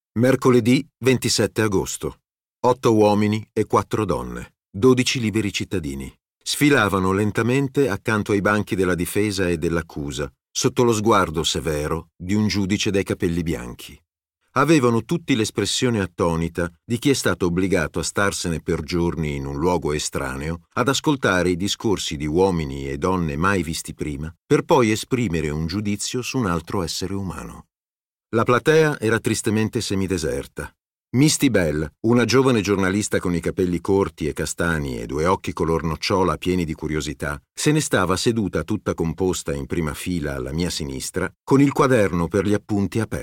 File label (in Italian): audiolibro estratto